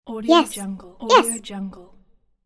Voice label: cartoon character voice yes

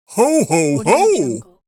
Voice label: santa saying ho ho ho; applehillstudios

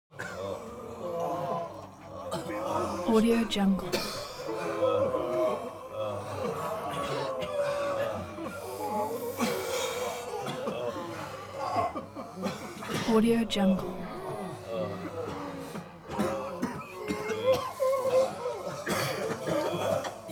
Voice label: human crowd walla ill

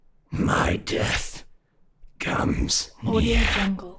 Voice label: undead pain vocal my death comes near

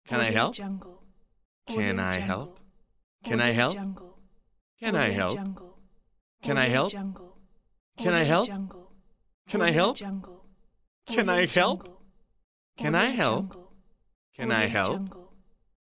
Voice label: male asking can i help

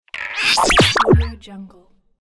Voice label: funny accent

